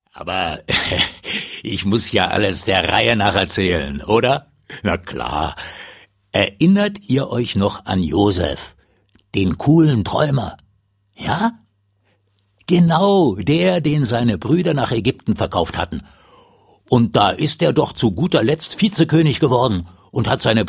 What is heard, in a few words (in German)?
Erzaehler